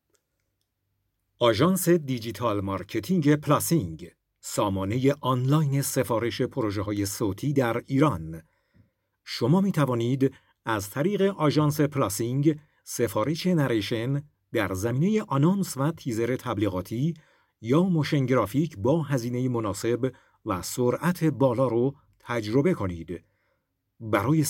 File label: narrator; نریتور و گوینده آقا